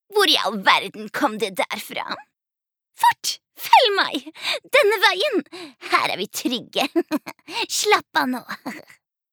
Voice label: no dub character

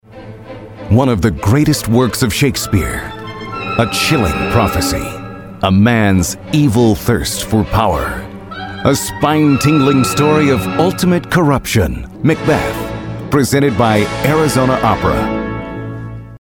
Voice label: us movietrailer

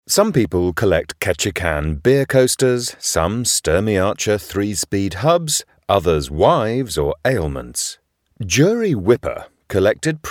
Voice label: uk audiobook nonfiction

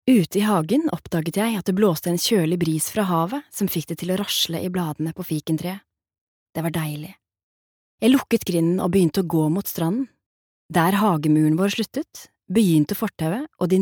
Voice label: no audio book, norsk, kvinne

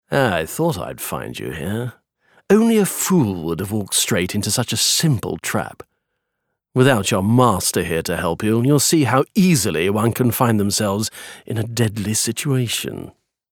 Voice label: uk character evil villain

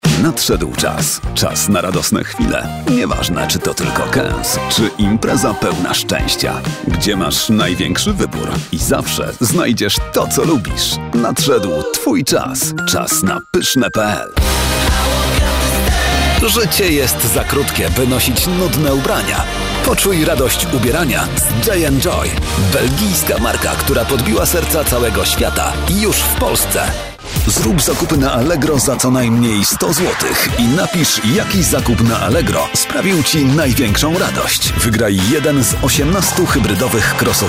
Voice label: pl commercials; polsk

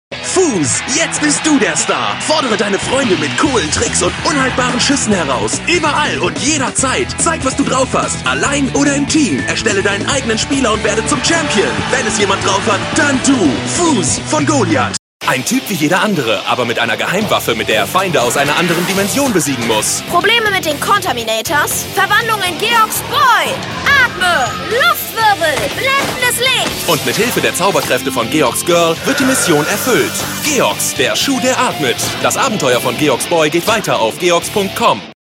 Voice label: de com hardsell

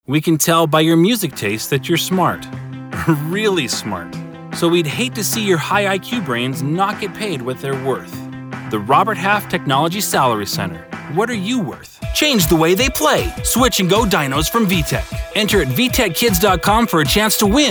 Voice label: us commercial

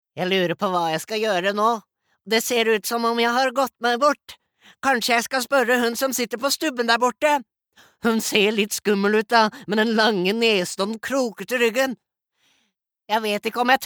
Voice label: no dub character

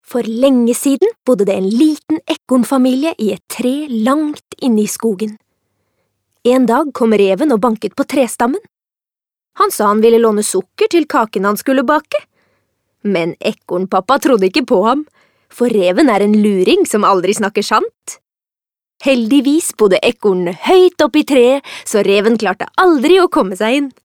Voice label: no dub narrator

